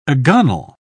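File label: "agonal", British English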